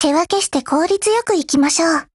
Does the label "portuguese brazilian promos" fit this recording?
No